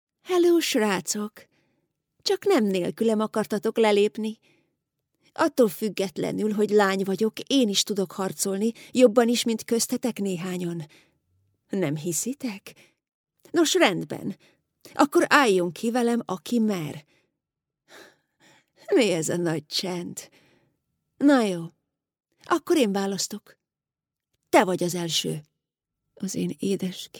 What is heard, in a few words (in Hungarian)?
Hungarian Narrative